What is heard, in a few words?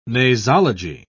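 "nasology", British English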